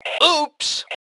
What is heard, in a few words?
"Ooops", Male; T2 Male 03